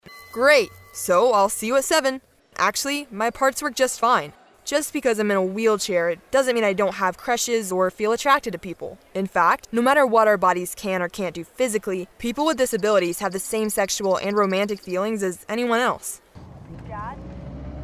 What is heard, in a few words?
Boy Male Teen